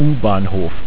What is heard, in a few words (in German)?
"ubahnhof", German